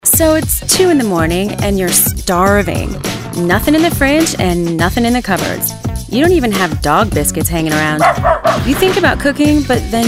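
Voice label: Commercial, Female voices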